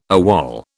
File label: "AWOL", British